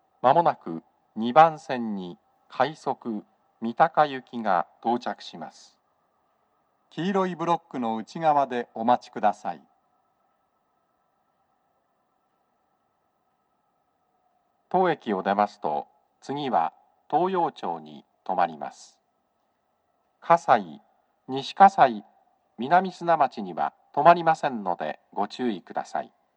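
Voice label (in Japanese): 接近放送, 男声, 放送は「快速 三鷹行き」です。; TOA円型での収録です。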